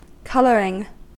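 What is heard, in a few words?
"colouring", US English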